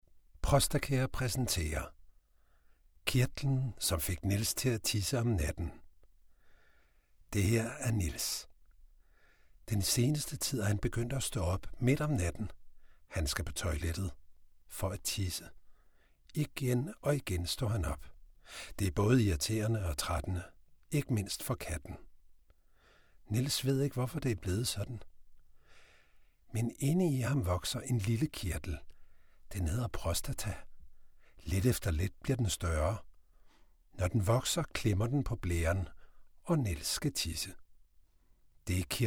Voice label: danish promos

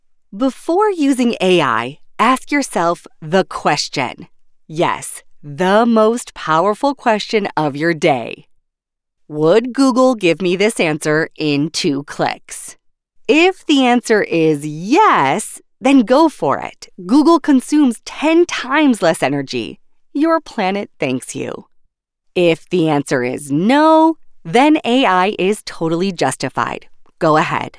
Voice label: english american e-learning; professional North American woman voice over talent

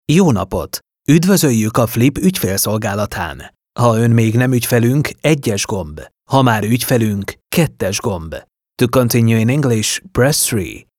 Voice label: hungarian ivr

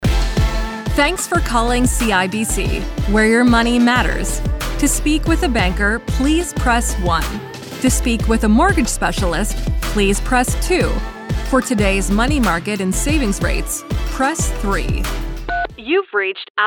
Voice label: english canadian ivr

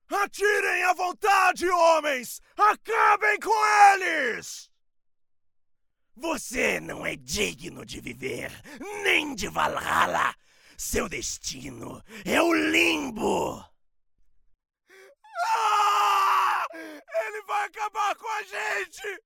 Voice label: portuguese brazilian video games

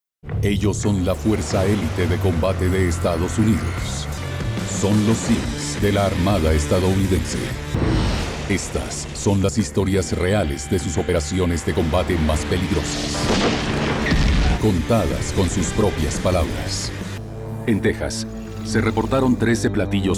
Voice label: spanish colombian narration

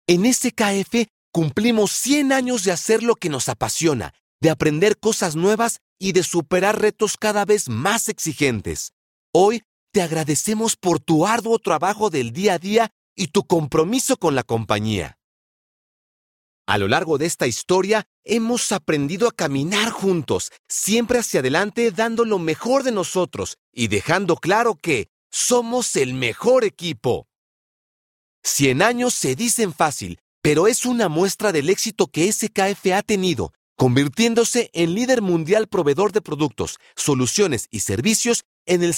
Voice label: spanish latin american corporate videos

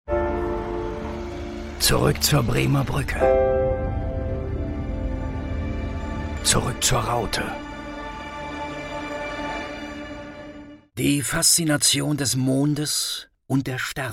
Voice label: german commercial; Alemán; Alemania

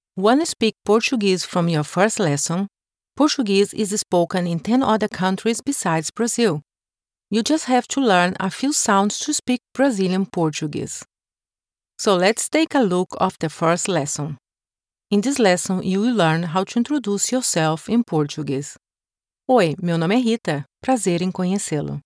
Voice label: portuguese brazilian e-learning

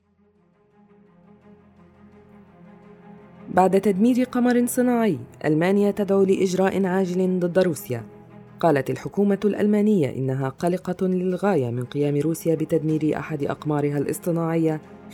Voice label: Arabic political ads

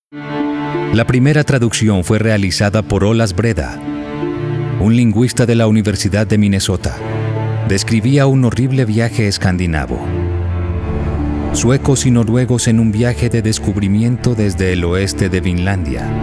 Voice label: spanish latin american documentaries

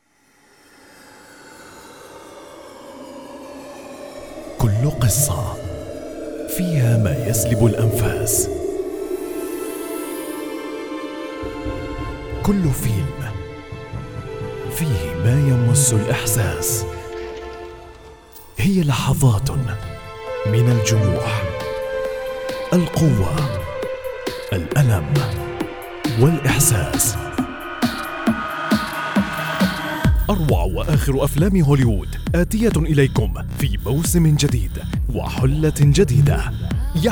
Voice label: arabic promos

